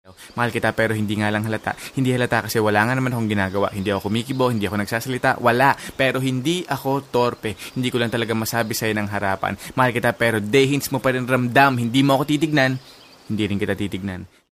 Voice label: monologue